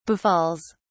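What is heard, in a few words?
"befalls", en, uk British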